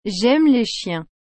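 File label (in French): "J'aime les chiens", French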